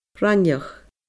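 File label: "raineach", Scottish Gaelic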